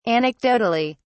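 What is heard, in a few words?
"anecdotally", British English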